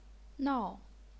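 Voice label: "nao", fourth tone, chinese pinyin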